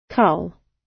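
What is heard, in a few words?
"cull", British; Flora and fauna, agriculture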